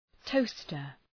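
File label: "toaster", English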